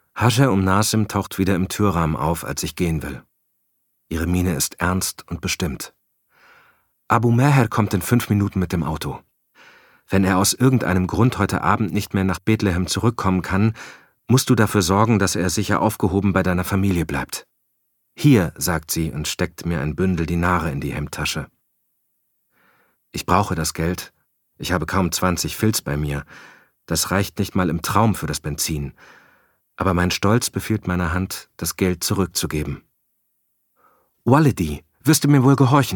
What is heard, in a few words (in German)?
Hoerbuch; Waehrend die Welt schlief